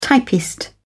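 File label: "typist", British English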